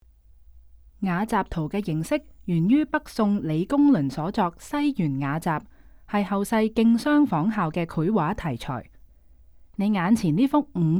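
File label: Cantonese, audio guide; stories untold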